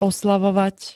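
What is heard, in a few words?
"oslavovať", Slovak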